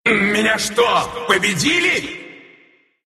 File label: death, ru; dota2